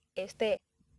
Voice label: "este", accent; laboratori fonetica; practiques accent